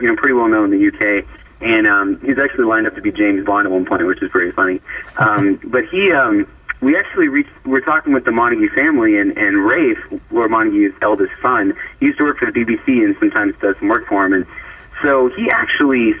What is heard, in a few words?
Narrator